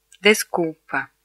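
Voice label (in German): "entschuldigung", German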